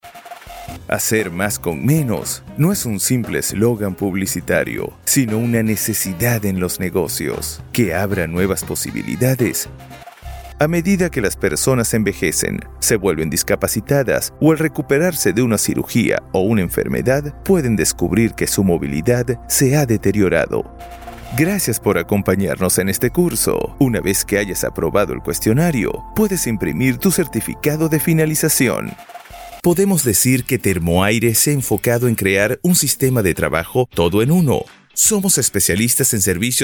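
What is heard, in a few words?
Spanish Latin American, male, showreel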